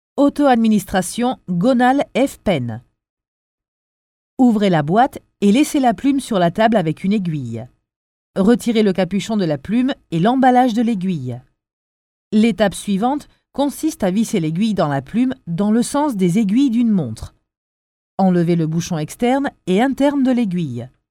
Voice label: locutora francesa french voiceover; locutora de Francia